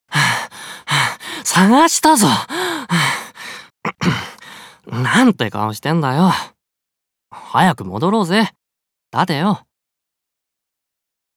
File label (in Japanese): 友達思い20代